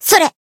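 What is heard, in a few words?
attack, Japanese; nagi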